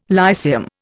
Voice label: "Lyceum", Indian

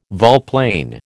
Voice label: "volplane", American

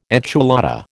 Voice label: "enchilada", American

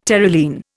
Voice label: "Terylene", Indian

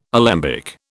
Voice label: "alembic", British

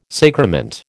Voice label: "sacrament", American

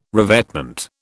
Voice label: "revetment", British

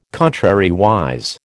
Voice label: "contrariwise", American

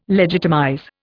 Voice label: "legitimize", Indian

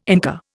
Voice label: "Inca", Indian

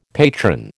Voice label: "patron", American

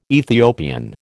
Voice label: "Ethiopian", American

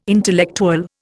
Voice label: "intellectual", Indian

